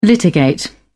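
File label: "litigate", British English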